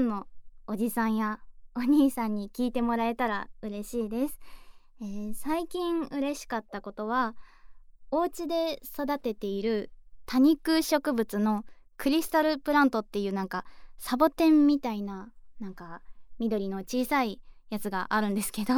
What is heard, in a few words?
FreeTalk, ASMR; おまけデータ